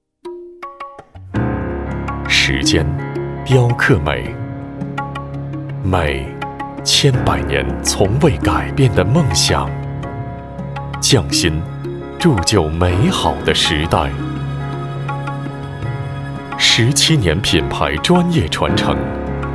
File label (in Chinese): 男, 广告, 年轻; 美莱国际